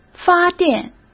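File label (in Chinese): "发电", Mandarin Chinese; hsk; chinese-flashcards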